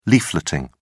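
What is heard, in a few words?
"leafleting", English, British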